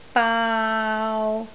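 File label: "baau3", Cantonese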